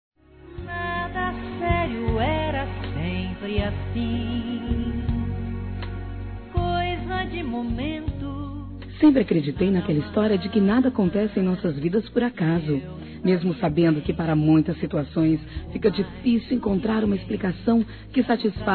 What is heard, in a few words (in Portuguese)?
Pai Distante F, Telemensagens Aniversário; Aniversários; Aniv Familiar